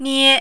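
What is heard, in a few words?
"nie", Mandarin, first tone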